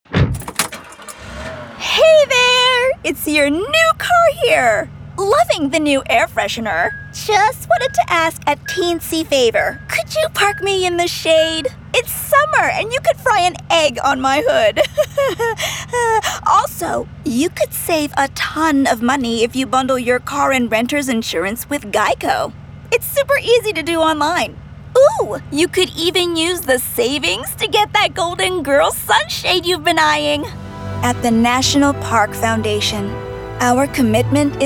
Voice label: Commercial